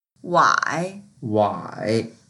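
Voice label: "wai3", Mandarin Chinese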